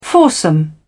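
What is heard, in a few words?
"foursome", English, British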